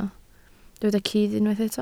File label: "cudd", Welsh